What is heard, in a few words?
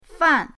"fan4", pinyin; pinyindct-open